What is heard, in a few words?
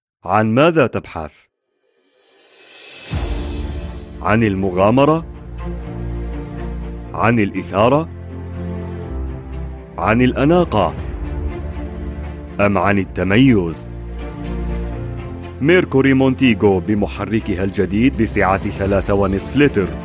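Arabic Commercial; GoLocalise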